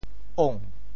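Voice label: "ong2", Teochew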